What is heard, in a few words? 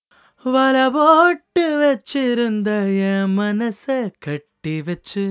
Vocal Male Tamil